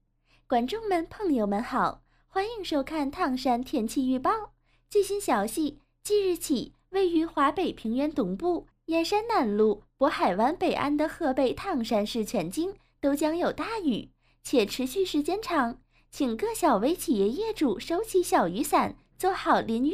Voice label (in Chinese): 唐山话